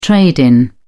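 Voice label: "trade-in", British English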